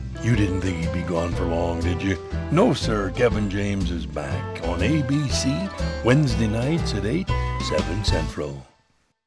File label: Comedy Promo; Kevin James Show